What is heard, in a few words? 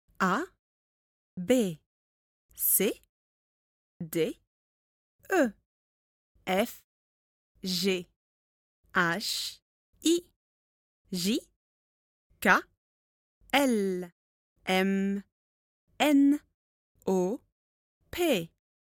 Alphabet, french pronunciation